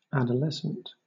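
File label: "adolescent", British English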